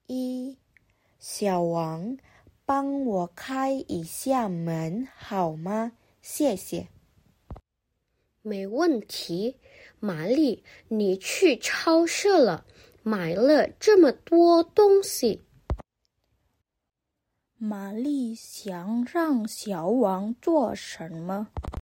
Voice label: Mandarin; mandari placement test hsk3